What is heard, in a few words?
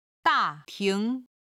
"da4 ting2", Chinese